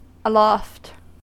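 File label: "aloft", US English; pronunciations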